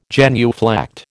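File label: "genuflect", American English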